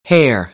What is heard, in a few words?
"hare", American; AmericanBritish